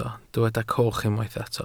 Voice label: "coch", Welsh